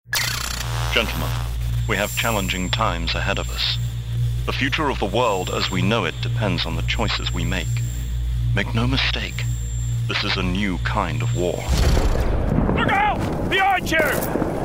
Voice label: Gaming Character